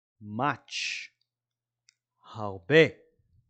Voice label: "much" – "הרבה"

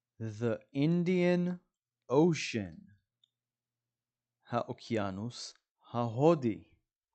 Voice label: "indian ocean" – "האוקיינוס ההודי"

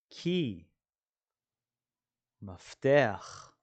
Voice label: "key" – "מפתח"

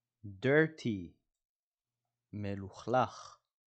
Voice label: "dirty" – "מלוכלך"

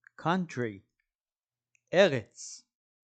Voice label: "country" – "ארץ"

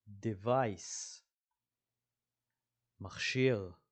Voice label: "device" – "מכשיר"

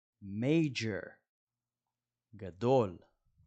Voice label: "major" – "גדול"

